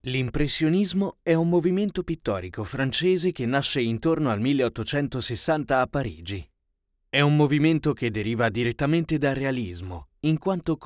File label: documentary; impressionismo